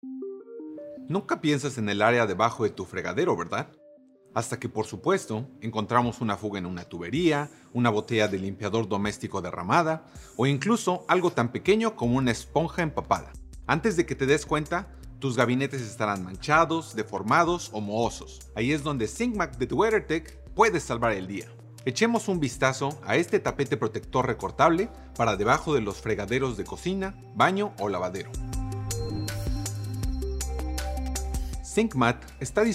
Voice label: Sink Mat Spanish

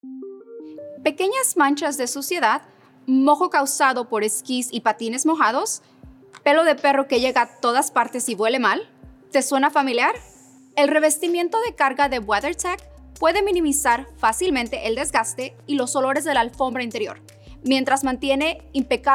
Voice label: Cargo Liner Spanish

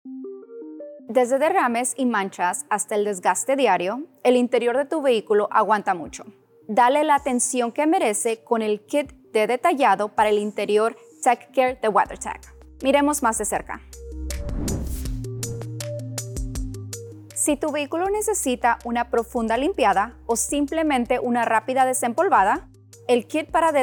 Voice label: Spanish; TechCare Interior Detailing Kit

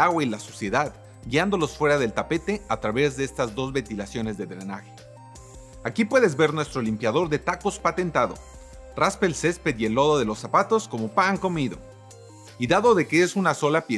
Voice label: Golf Cart Mat Spanish

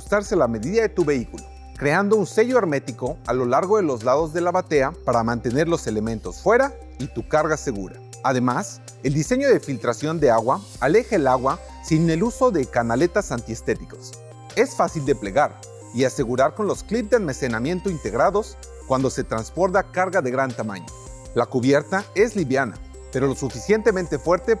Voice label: Alloy Cover Spanish

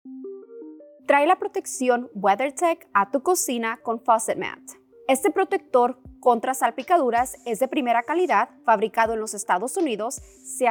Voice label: Spanish; Faucet Mat

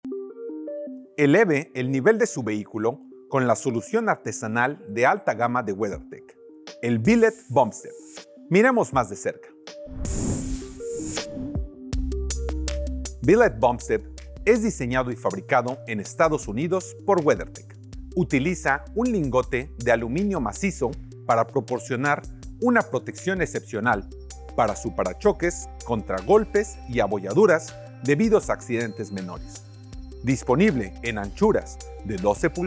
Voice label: Spanish; Billet Bump Step

